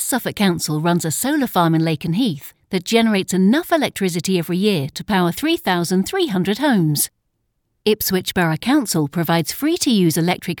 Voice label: Climate Explainer